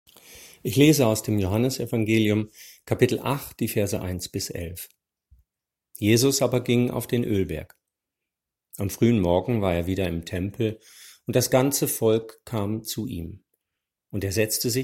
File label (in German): Lesung